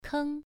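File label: "keng1", Chinese pronunciation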